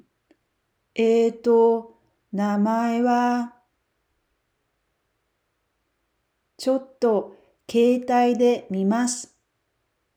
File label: japanese Dialogue